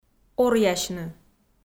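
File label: "оръясьны", Komi-Zyrian, female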